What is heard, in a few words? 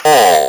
"pull", Speak & Spell